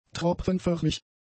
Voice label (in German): "tropfenförmig", German; adjectives